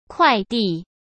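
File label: "快递", Mandarin Chinese; hsk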